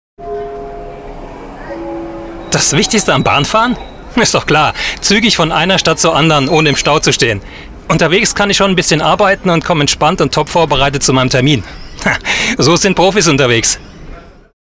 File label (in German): Testimonial